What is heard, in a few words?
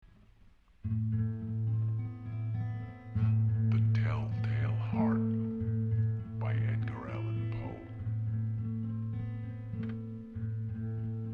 story narrated